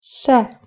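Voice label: "ш", Russian pronunciation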